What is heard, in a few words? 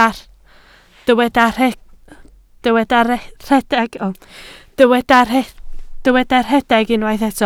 "rhedeg", Welsh